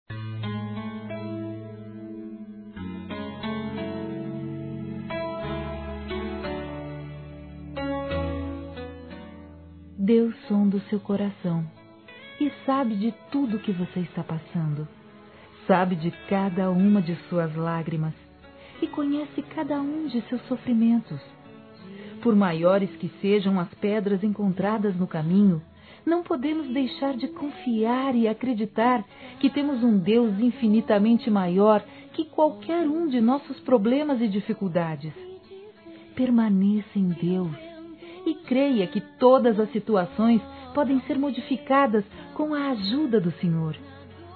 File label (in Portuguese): OTIMISMO, Gospel, fem; Telemensagens; Telemensagens 2017